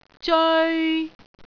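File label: "zeoi3", Cantonese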